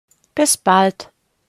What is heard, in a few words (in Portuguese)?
pronuncia alemao tchau formal